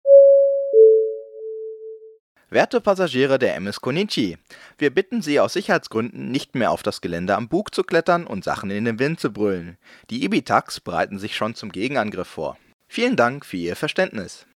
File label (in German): durchsage